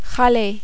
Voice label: "raler", French